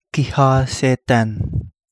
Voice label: Kapingamarangi; banded snake eel